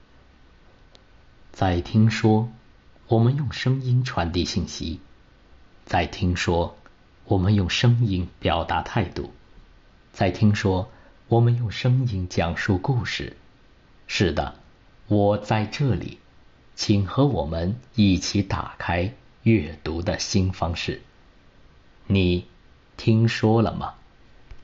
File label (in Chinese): 讲述类